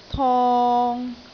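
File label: "tong3", Cantonese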